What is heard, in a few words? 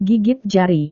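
"gigit jari", Indonesian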